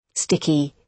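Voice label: "sticky", British English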